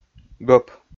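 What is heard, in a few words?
"гӧп", Komi, male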